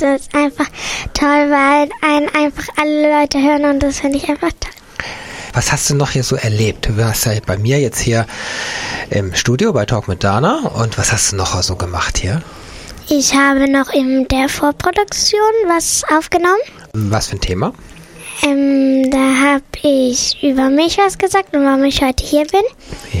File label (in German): Maus Türöffner Radio